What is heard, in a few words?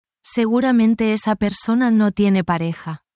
spanish, spanish phrases negative language